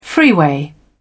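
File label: "freeway", British English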